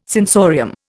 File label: "sensorium", Indian